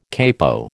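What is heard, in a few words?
"capo", American English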